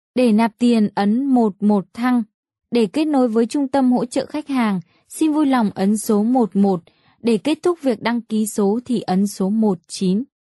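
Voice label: Vietnamese, female, various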